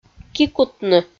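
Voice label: "кикутны", Komi, female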